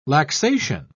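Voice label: "laxation", British English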